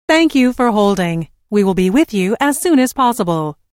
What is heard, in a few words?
Telephone prompt: Thank you for holding we will be with you as soon as possible, Recorded Voice